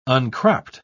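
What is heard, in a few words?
"uncropped", British English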